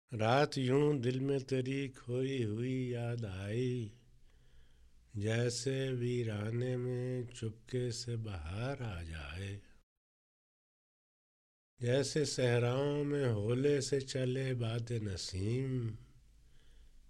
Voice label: Poem Recitation in Faiz voice